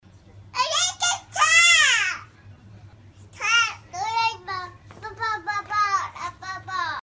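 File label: child talking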